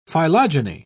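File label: "phylogeny", British English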